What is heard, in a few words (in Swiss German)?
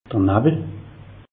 "nawwel", alsace; meteo